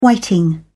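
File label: "whiting", British English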